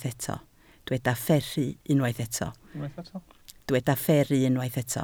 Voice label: "fferru", Welsh

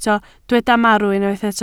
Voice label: "marw", Welsh

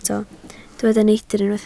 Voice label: "neidr", Welsh

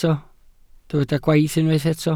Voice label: "gwaith" Welsh

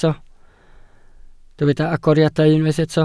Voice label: "agoriadau", Welsh

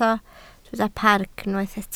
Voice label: "parc", Welsh

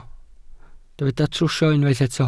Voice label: "trwsio", Welsh

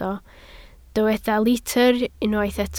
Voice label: "litr" Welsh

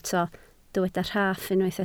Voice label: "rhaff" Welsh

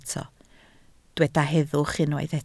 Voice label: "heddwch", Welsh

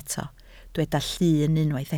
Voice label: "llun" Welsh

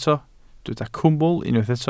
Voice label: "cwmwl" Welsh

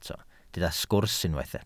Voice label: "sgwrs" Welsh

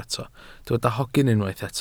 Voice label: "hogyn" Welsh

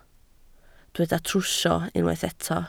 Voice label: "trwsio" Welsh

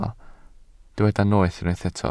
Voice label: "noeth" Welsh

